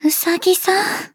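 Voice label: story, Japanese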